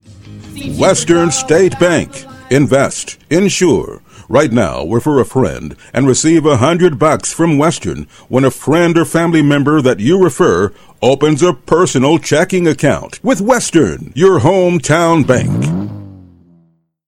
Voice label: Commercial Spot, Bank; Americas Western State Bank